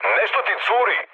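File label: Serbian tank damaged mood high; speech; War Thunder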